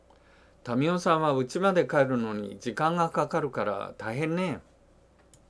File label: dialogue line, japanese